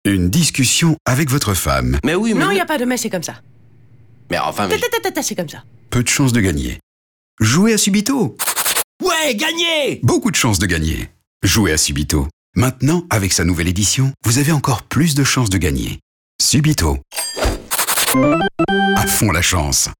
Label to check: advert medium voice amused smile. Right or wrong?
Right